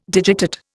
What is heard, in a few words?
"digitate", Indian